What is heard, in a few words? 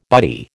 "buddy", American